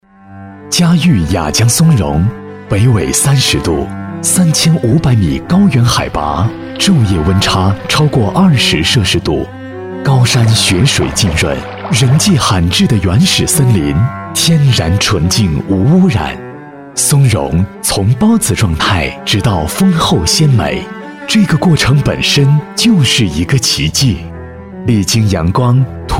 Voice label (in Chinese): 男 宣传片; 佳欲雅江松茸